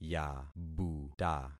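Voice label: "yabuta", concatenated syllables; Affixing experiment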